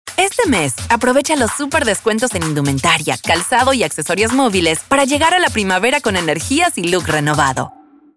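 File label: Energetic Smiling Latin American; Energico Sonreido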